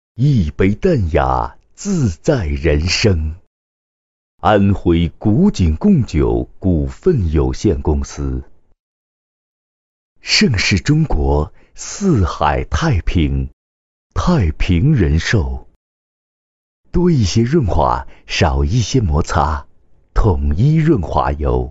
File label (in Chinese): 各种广告